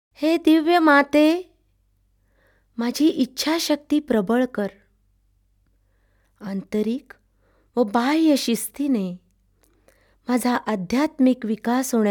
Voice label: Spiritual Affirmations, Marathi; Light; Love Peace Joy; Prayer & Light Meditation for World Peace